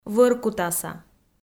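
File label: "вӧркутаса", Komi, female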